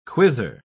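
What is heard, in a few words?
"quizzer", English, uk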